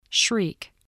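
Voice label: "shriek", English word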